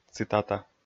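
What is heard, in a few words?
"цитата", Komi, male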